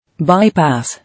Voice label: "Bypass", English